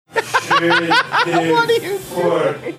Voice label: laughing saying what are you doing

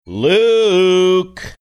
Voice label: sing songy

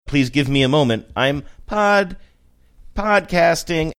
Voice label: sing songy; please give me a moment im podcasting

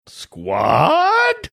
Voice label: saying squad funny

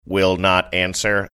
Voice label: saying will not answer robotic

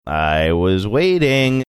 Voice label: sing songy; i was waiting

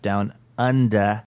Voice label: saying down under australian accent

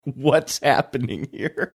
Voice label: laughing saying whats happening here

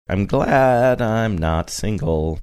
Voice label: saying im glad im not single sing songy

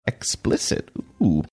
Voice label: quietly saying explicit ooh

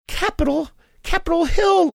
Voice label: saying capitol capitol hill funny

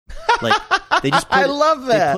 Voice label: laughing saying i love that

